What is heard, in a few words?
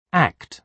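"act", British English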